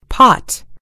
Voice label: "pot", en US; gcompris